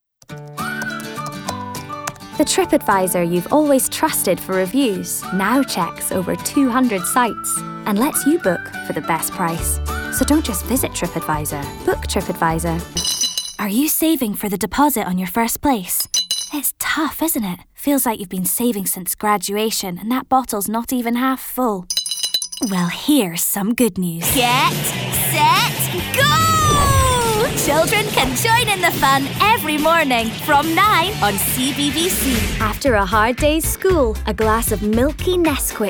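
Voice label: scottish commercial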